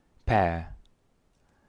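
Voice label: "gruszka", Polish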